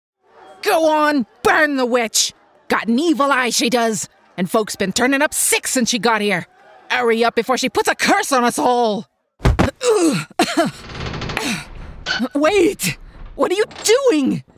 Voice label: Character Variety